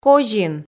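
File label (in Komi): "козин", Komi, female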